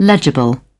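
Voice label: "legible", British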